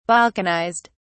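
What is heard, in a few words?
"balkanized", British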